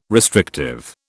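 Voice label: "restrictive", British English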